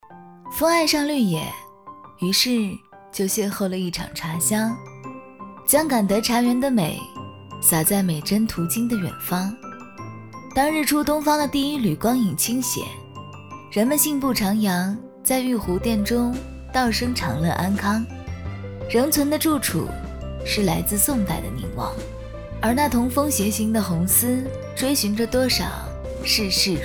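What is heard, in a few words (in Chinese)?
女国大音-房琪风; 家乡美; 文旅配音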